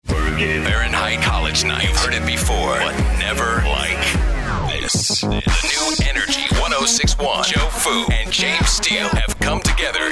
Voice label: imaging